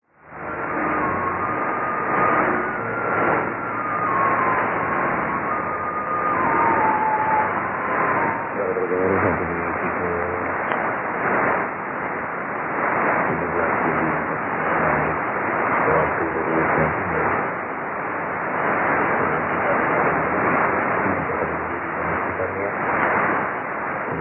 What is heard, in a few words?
6125.5kHz; RRI-Nabire